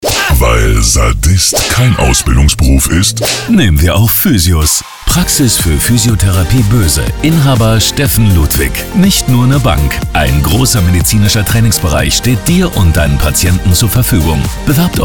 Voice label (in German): radio spot; physiotherapie boese; radio21